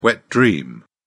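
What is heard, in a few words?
"wet dream", British English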